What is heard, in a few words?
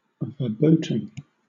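"verboten", British English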